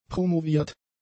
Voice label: "promoviert", German; adjectives